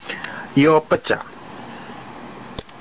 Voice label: "yopacha" outrigger strut or brace, canoe part; Fishing Lore of Tobi

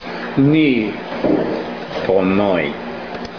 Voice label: "pohomoi", Tobian; knee; Quackenbush Word List No. 12

